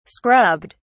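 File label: "scrubbed", British English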